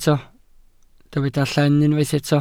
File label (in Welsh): "llen", Welsh